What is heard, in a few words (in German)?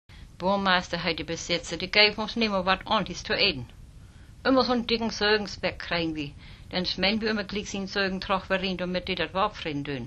Nordfriesisch